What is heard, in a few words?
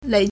"lei3", Chinese